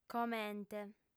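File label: "comente", female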